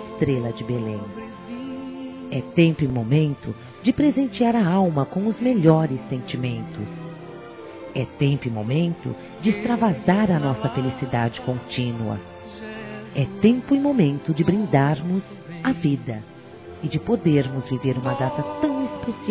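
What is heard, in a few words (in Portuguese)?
Natal neutro feminino, Telemensagens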